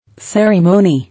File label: "Ceremony", English